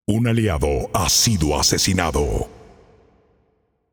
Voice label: Announcer Match Slain Ally; Paladins